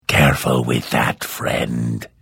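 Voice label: Follow, Vo; Morrowind GOTY GOG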